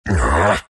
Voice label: anger; dota; earth spirit

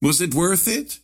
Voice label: lasthit pain; ringmaster

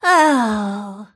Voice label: happy; snapfire

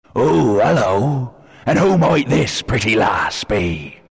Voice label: announcer pick pretty lass, pirate; dlc workshop; capn

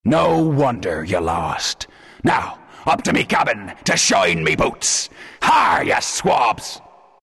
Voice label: announcer losing shine boots, pirate; dlc workshop; capn

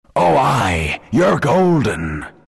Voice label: announcer level up golden, pirate; dlc workshop; capn

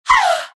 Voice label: naga pain; dota; naga siren

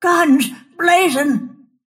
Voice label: attack pain; snapfire

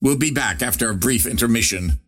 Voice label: teleport pain; ringmaster

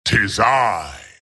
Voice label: wraith death; dota; skeleton king

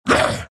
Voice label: troll pain; dota; troll warlord

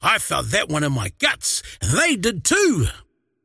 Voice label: croak big; dota; largo

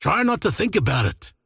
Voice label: last hit pain; dota; largo

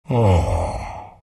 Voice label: treant happy

